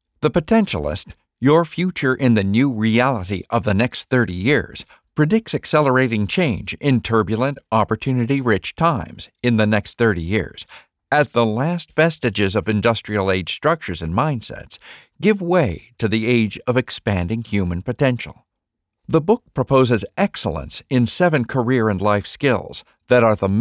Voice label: Audiobook Nonfiction